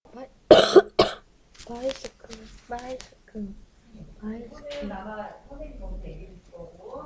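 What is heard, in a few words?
"bicycle", spoken word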